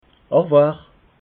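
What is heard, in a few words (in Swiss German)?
"aurvoir", Alsatian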